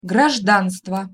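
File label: "grazdanstvo", Russian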